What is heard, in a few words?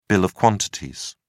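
"bill of quantities", English, uk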